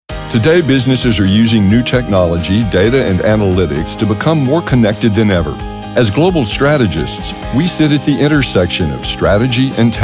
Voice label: Corporate Narration